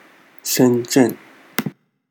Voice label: Shenzhen pronunciation